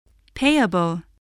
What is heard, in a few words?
"payable", English, voice